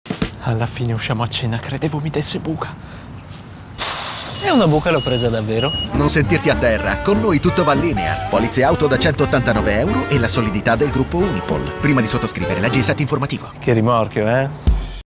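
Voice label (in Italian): linear spot tv, voce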